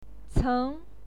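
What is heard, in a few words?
"ceng", Mandarin Chinese, second tone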